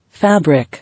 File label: "Fabric", English